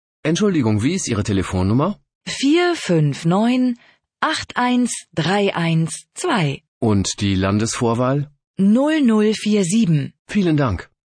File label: dialog